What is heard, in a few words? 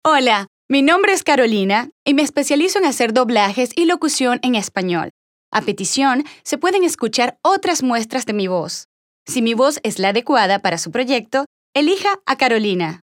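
Spanish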